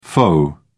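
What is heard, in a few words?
"faux", British English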